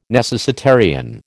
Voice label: "necessitarian", American